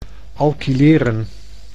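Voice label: "alkyleren", Dutch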